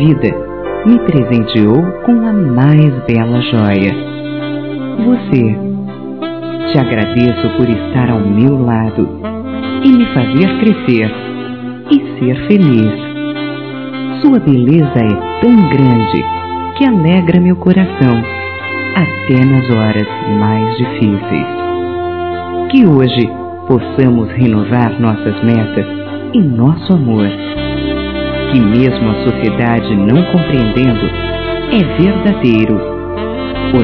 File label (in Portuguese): ROMANTICO Feminino, Dia Dos namorados; Telemensagens 2017